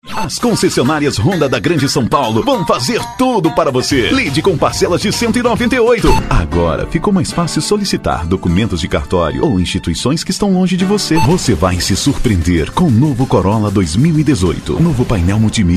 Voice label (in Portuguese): locutor producao de offs vinhetas spots comerciais